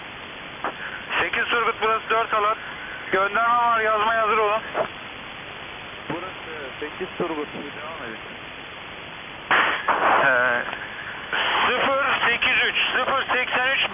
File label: usb voice tur